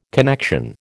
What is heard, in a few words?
"connection", American